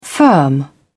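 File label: "firm", British English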